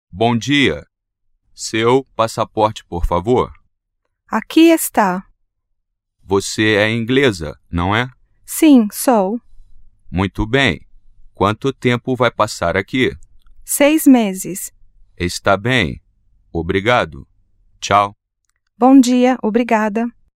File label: Braz Portuguese